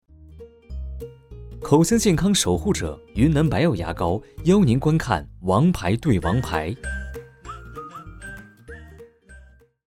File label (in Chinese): 广告 牙膏